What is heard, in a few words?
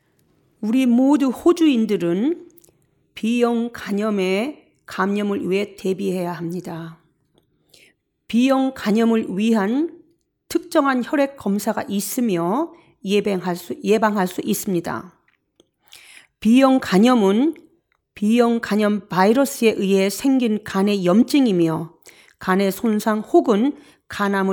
Korean; hepatitis; AskHepatitisCampaign